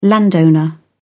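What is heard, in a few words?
"landowner", British English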